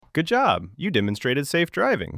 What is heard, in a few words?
Male Good Job